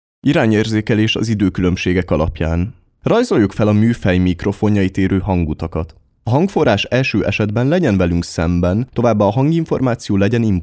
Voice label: Hungarian male

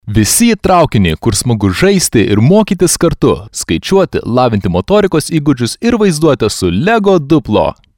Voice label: Lithuanian male

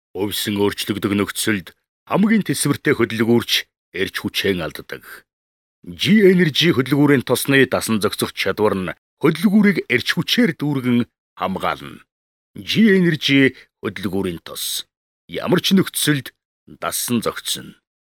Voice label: Mongolian male

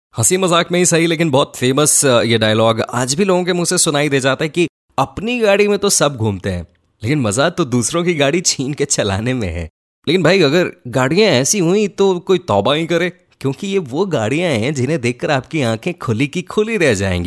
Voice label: Hindi male

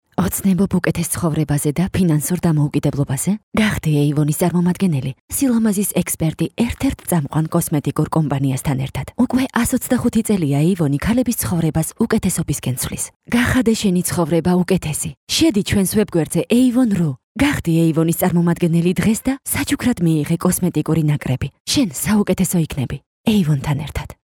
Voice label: Georgian female

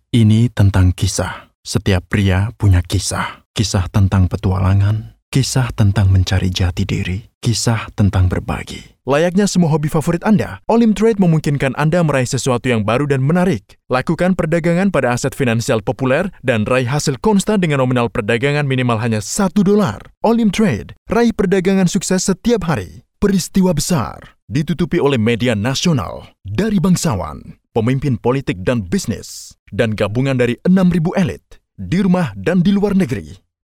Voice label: Indonesian

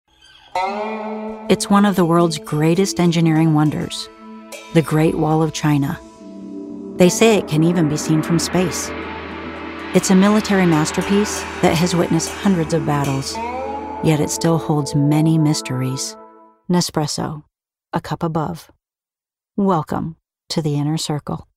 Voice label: English American

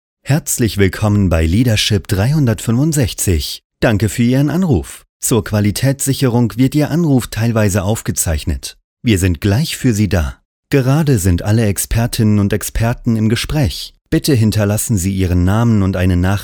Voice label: German

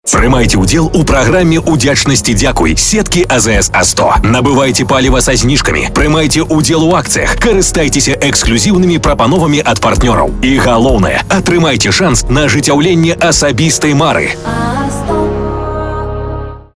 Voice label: Belarusian male

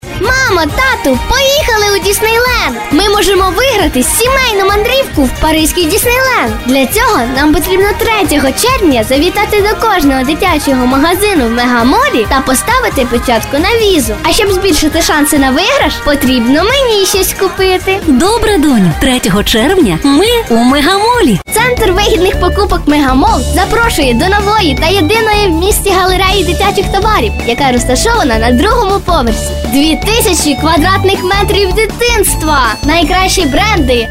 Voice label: Ua devochka 12 let

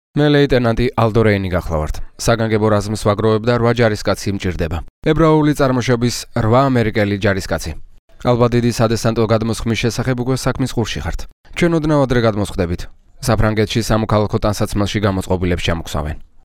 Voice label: Georgian male

